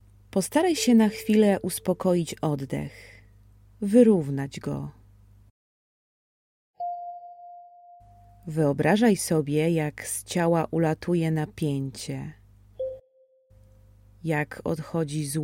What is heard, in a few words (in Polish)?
medytacja